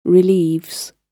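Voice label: "relieves", British English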